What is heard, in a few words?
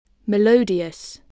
"melodious", English